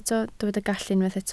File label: "gallu", Welsh